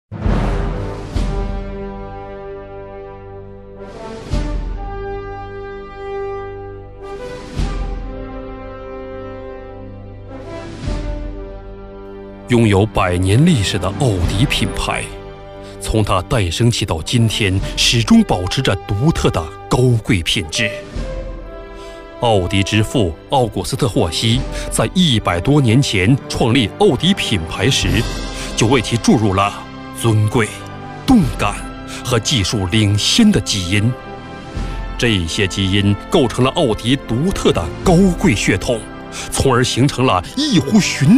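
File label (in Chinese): 男激情叫卖; 国语男声配音; 奥迪专题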